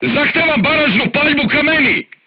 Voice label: voice message art command oneself, crew tanks serbian